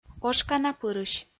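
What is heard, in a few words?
"ошканапырысь", Komi-Zyrian, female; audio dict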